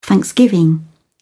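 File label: "thanksgiving", English, British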